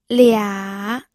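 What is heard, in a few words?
"lia", third tone, pinyin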